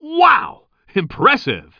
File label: commentator